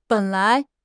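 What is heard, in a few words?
"ben3 lai2", Mandarin Chinese; HSK4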